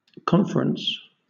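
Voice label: "conference", British English; pronunciations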